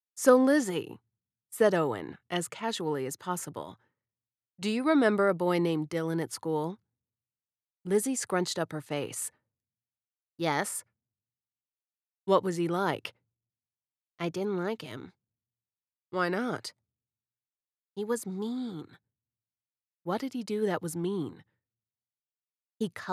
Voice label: Suspence Thriller; Rock Paper Scissors; The Lizzie Ballard Thriller